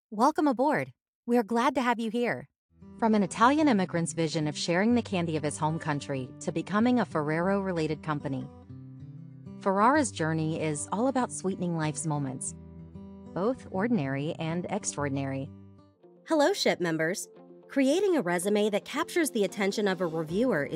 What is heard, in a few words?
elearning